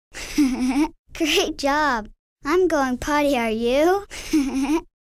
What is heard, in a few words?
Laugh. Great job. I'm going potty. Are you